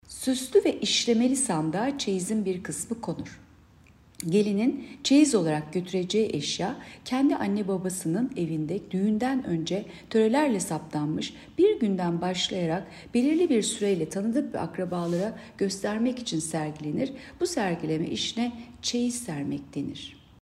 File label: turkce